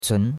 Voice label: "cun2", chinois; Cours-de-Chinois